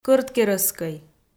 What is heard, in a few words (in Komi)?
"кӧрткерӧсскӧй" Komi